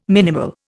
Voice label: "minimal", Indian